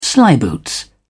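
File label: "slyboots", English, British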